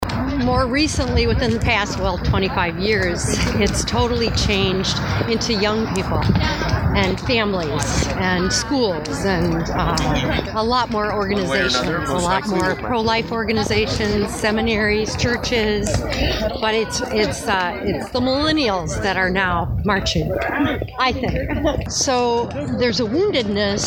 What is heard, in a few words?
Vox Pops; March for Life